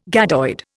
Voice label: "gadoid", Indian